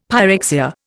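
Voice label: "pyrexia", Indian English; Dictionary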